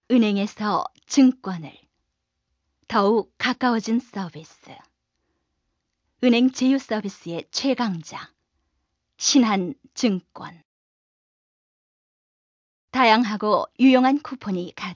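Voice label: Korean female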